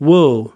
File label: "woo", us